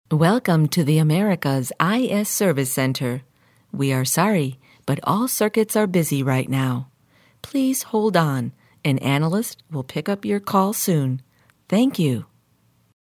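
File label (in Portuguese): Voz USA Inglês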